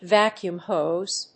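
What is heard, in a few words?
"vacuum hose", English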